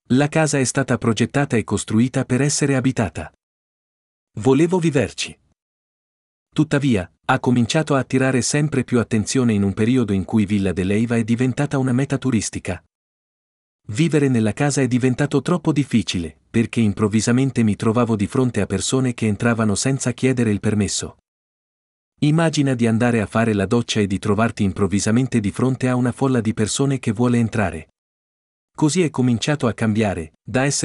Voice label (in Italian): Italiano